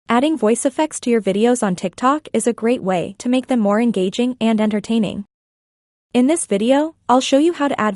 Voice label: tiktok voice